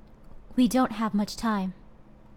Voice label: "We Don't Have Much Time", human male heroic; customnpcs

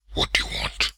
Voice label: What Do You Want, human male evil; customnpcs

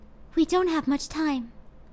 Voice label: We Don't Have Much Time, girl heroic; customnpcs

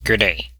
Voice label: Good Day, human male villager; customnpcs

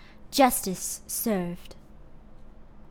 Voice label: "Justice Served", human female heroic; customnpcs

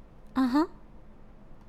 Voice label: "Uhuh", human female villager; customnpcs

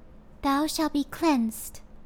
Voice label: "Thou Shall Be Cleansed", human girl heroic; customnpcs

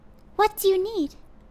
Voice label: "What Do You Need", human girl villager; customnpcs

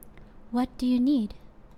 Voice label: "What Do You Need", human female villager; customnpcs

